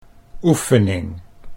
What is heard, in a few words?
"oefening", Dutch